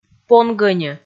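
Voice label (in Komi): "понгӧньӧ", Komi, female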